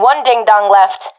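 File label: enemies left one, professional female; vo; agents; Source Model Packs; Counter Strike Model Pack; CS2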